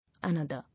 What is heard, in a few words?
"Another", English